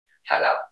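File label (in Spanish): Voice Acting es F, Spanish, female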